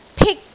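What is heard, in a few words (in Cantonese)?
"pik1", Cantonese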